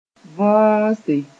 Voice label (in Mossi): "võose", moore